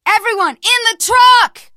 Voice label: arrive truck, teen girl, survivor voice; source engine; left 4 dead 2

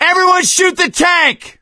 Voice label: battlecry tank; voice; source engine; left 4 dead 2; survivor; gambler

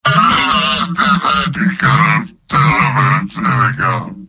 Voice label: demoman gibberish; mvm

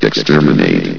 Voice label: "exterminate", vox; Source Engine; bshift

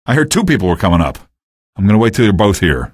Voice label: two people, male; video games; npc; source engine; episodic; episode 1

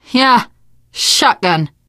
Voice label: take shotgun, teengirl; voice; source engine; left 4 dead 2; survivor

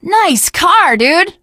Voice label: initial meeting, teen girl

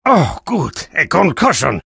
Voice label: taunt head pain; video games; source engine; tf; taunts; spy

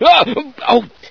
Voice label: scientist fear; source engine; hl1; video games

